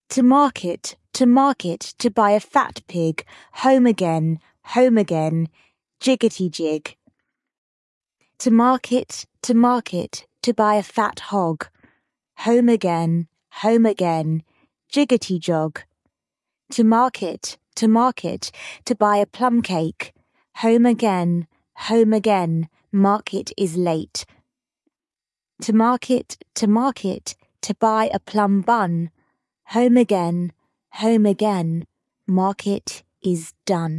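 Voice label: female British; To Market To Market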